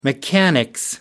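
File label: "mechanics", British English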